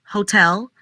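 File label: "Hotel", pronunciation; holidays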